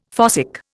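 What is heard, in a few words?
"fossick", Indian English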